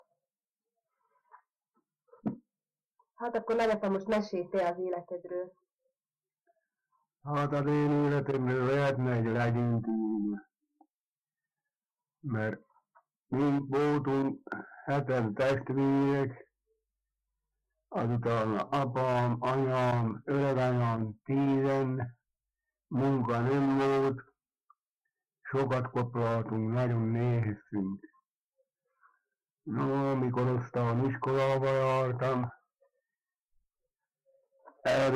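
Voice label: dialect collection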